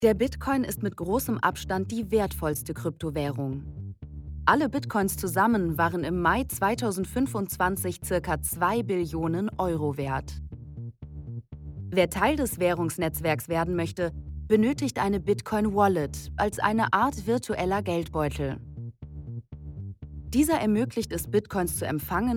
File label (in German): Doku; Bitcoin